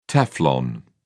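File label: "teflon", British English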